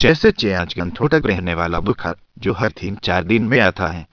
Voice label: hindi